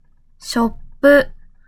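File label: "ショップ", Japanese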